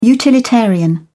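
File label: "utilitarian", British English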